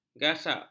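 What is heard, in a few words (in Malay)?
"gasak", Malay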